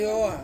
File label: "oa", to stand up, Ansus